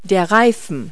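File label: "tire", English word; Multi-Lingual Talking Picture Dictionary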